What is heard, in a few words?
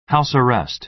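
"house arrest", American English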